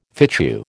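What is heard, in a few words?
"fitchew", American English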